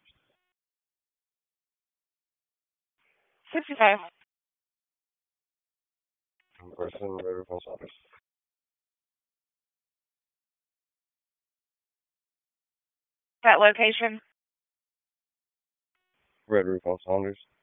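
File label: Police Southwest District Dispatch, p25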